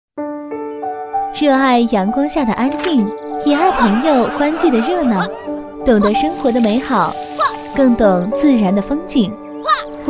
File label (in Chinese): 女-房产广告-高端品质; 房产广告《富田城九鼎公馆》